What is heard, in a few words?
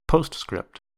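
"postscript", US English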